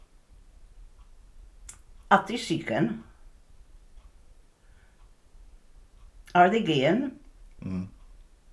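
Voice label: Northern and Insular Scots, The Black Isle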